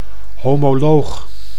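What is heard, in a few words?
"homoloog", Dutch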